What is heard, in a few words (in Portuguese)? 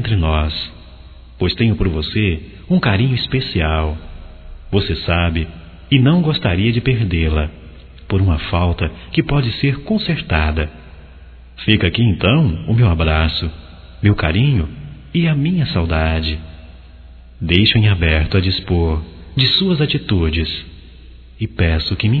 DESCULPA PELO BOLO, Românticas masc